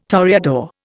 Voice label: "toreador", Indian